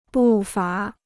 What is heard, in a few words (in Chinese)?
"步伐", Chinese